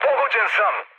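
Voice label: Serbian aircraft destroyed mood low, speech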